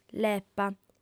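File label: "lepa", female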